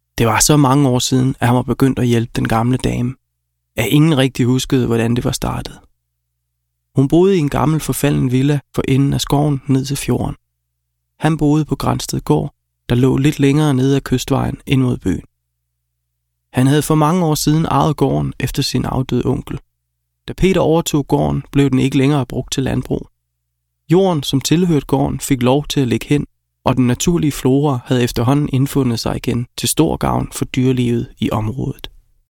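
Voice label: Danish male Audiobook; voice over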